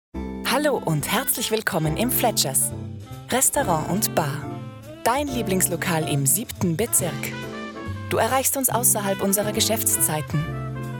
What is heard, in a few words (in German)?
Anrufbeantworter